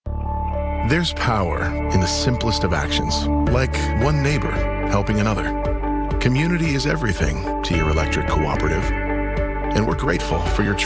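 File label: Commercial, Male Voice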